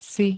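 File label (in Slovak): "si", Slovenské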